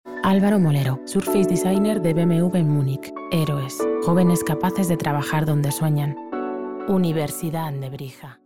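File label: Spanish, female, commercial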